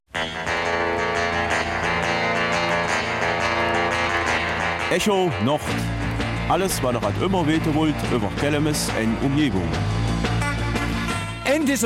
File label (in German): Kelmiser Mundart; Sportlerehrung der Großgemeinde Kelmis